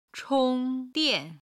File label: "chong1dian4", chinese